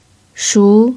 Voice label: "shu2", Chinese